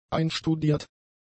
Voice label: "einstudiert", german; adjectives

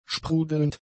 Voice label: "sprudelnd", German; adjectives

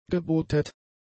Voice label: "gebootet", German; adjectives

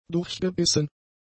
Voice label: "durchgebissen", German; adjectives

